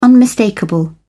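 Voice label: "unmistakable", British English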